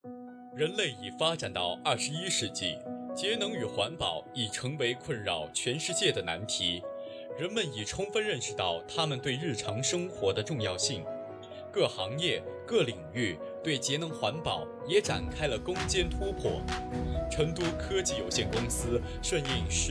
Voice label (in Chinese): 宣传片配音原声